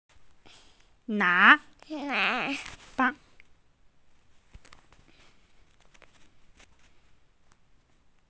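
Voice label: "na2", Mandarin Chinese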